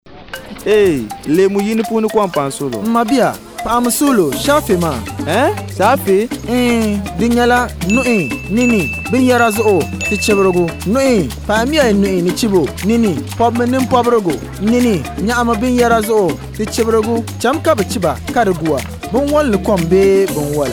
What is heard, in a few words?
Juice Seller DAGBANI; Radio 1